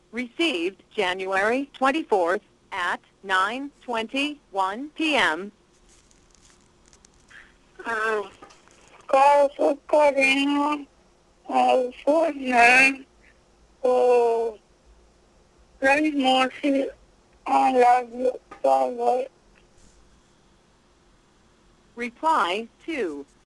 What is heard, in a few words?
voicemail